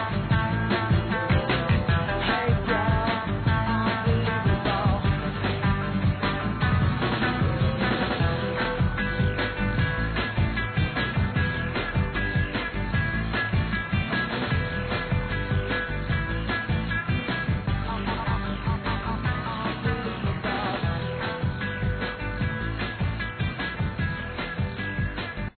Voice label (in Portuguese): ANIV. GERAL F; Aniversários; Aniversários diversos; fem